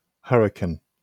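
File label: "hurricane", British English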